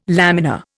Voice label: "lamina", Indian

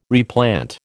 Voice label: "replant", American

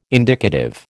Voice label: "indicative", American

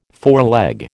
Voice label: "foreleg", American

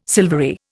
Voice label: "silvery", Indian

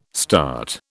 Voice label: "START", British

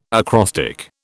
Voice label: "acrostic", British

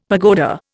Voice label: "pagoda", Indian; Dictionary

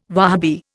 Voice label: "Wahabi", Indian

